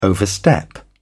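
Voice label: "overstep", English, British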